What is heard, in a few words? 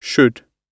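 "should", British English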